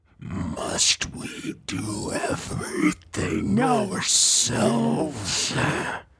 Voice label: possessed, Corrupted and possessed version of Reuben with sinister transformation and otherworldly menace, Audiobook Monster; Audiobook Creature